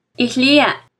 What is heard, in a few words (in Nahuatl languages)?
"illia", Náhuatl; decir (algo); NAHUATLAHTOLLI